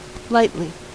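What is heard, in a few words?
"lightly", American English; pronunciations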